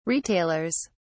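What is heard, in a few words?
"retailers", English, British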